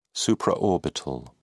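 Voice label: "supraorbital", British English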